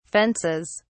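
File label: "fences", English, British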